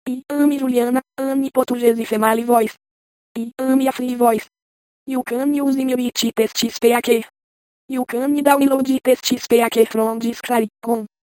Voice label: portugese female